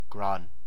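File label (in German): "Gran", German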